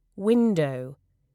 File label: "window", uk